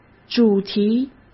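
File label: "主题", Mandarin Chinese; chinese flashcards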